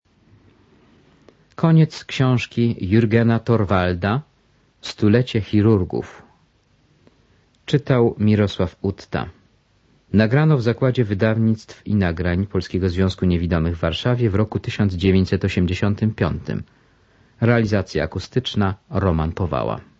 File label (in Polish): Stulecie chirurgów, audiobook; Jürgen Thorwald